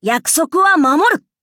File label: level up, ja; Cookie Run